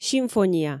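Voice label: "симфония", Komi, female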